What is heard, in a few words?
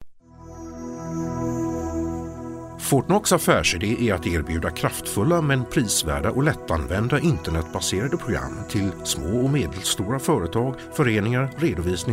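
Swedish, Corporate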